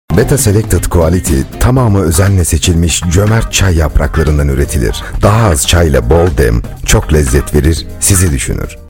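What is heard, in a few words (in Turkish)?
reklam, Turkish; betatea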